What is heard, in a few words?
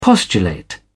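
"postulate", British English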